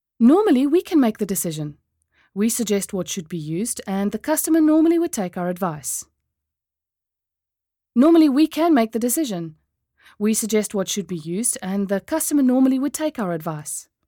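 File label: Afrikaans, female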